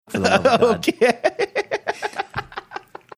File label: laughing saying okay